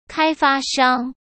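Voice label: "开发商", Mandarin Chinese; hsk; chinese-flashcards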